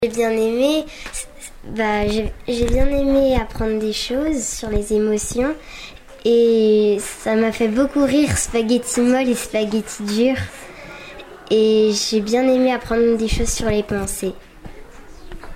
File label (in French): témoignage enfant